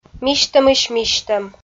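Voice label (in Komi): "мисьтӧмысь-мисьтӧм", Komi, female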